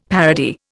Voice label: "parody", Indian; Dictionary

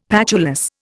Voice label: "patulous", Indian; Dictionary

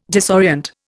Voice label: "disorient", Indian

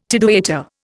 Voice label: "tidewaiter", Indian

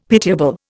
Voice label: "pitiable", Indian; Dictionary

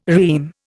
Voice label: "REME", Indian

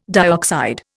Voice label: "dioxide", Indian

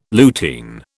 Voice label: "lutein", British

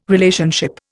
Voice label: "Relationship", Indian

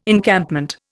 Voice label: "Encampment", Indian

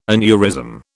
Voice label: "aneurysm", British

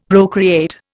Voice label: "procreate", Indian; Dictionary

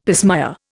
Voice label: "pismire", Indian; Dictionary

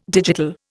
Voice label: "digital", Indian

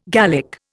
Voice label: "Gallic", Indian

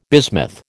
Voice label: "bismuth", American

